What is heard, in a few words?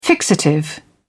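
"fixative", British English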